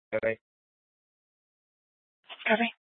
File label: Mesa PD Central Dispatch, scanner